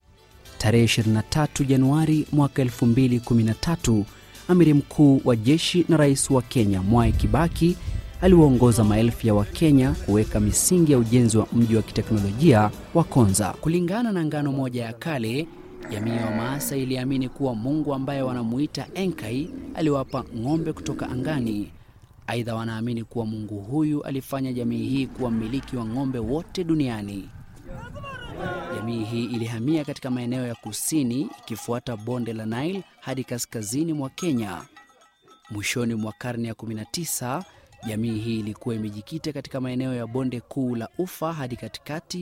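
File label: Swahili, Documentary; GoLocalise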